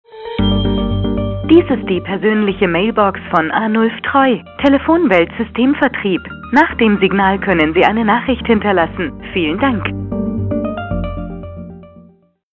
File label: Mailbox